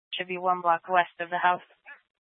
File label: Scottsdale Police, Simulcast